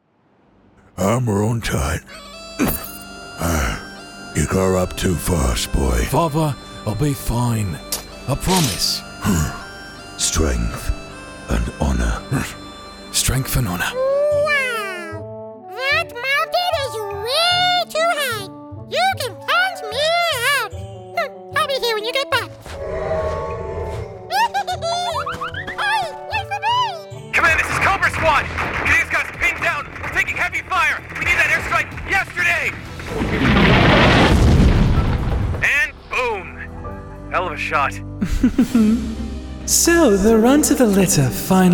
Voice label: Videogame, en-AU